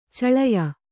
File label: "ಛಳೆಯ", Kannada